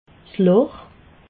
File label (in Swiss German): "loch", alsace